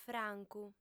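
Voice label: "francu", female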